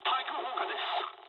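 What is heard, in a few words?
damaged aa mood med, speech japanese; War Thunder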